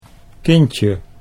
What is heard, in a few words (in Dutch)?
"kindje", Dutch